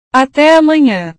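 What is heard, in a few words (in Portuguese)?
"ate amanha", Portuguese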